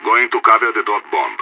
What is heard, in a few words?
going to guard loose bomb, radio; SAS